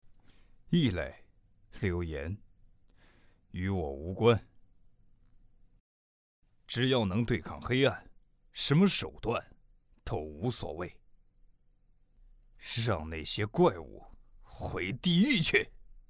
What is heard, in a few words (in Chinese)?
冷漠成年男